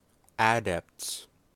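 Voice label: "adepts", US English; pronunciations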